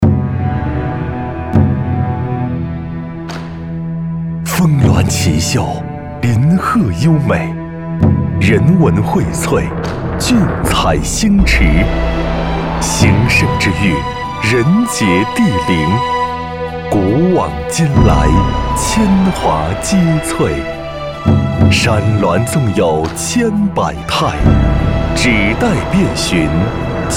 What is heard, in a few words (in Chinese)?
大气浑厚广告